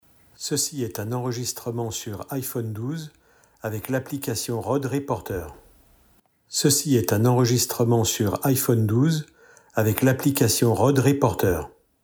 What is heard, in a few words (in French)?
REC iPhone avant apres, l’enregistrement de la voix